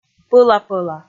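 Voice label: "пӧла-пӧла", Komi, female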